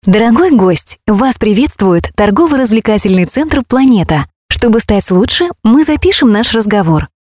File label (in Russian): dushevno; avtootvetchik